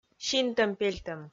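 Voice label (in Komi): "синтӧм-пельтӧм", Komi, female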